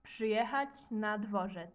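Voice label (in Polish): "przyjechac na dworzec", Polish; multimedia glossaries; transport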